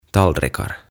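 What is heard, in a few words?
"Tallrikar" Swedish; Lautaset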